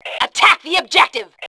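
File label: "attack objective", female voice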